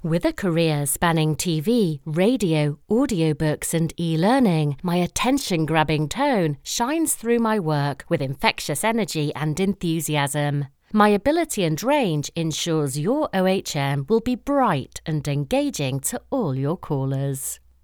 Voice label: english british